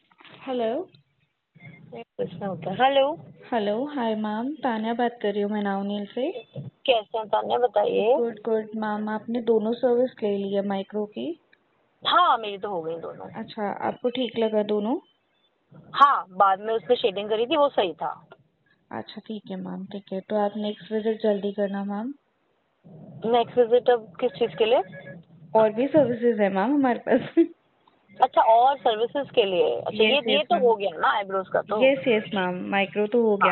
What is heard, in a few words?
Call recording